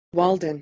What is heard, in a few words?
"waldan", Proto Germanic